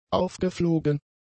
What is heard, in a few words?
"aufgeflogen", German; adjectives